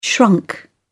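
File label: "shrunk", British English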